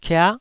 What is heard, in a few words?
"kia9", taiwanese syllables